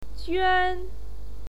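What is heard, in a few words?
"juan", Mandarin Chinese, first tone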